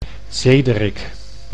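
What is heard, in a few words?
"Zederik", Dutch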